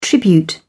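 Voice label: "tribute", British English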